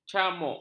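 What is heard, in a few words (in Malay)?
"camuk", Malay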